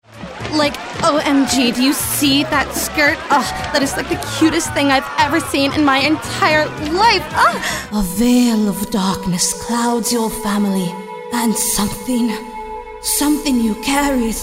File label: Animation